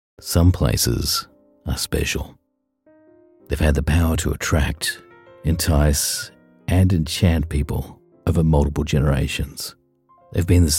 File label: storytelling scripts